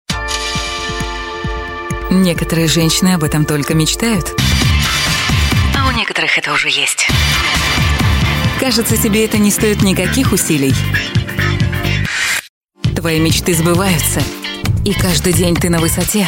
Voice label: Rus